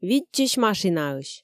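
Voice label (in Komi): "видзчысь машинаысь", Komi, female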